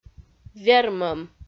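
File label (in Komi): "вермӧм", Komi, female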